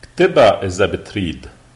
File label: write lebanese